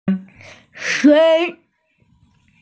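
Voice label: "suan1", Mandarin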